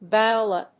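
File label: "viola", English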